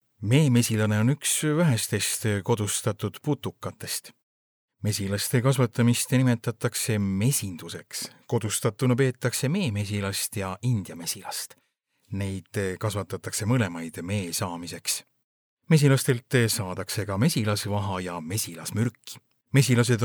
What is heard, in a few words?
male estonian voice over talent narrative